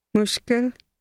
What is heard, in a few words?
difficulty, punjabi; English: difficulty